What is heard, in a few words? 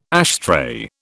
"ashtray", British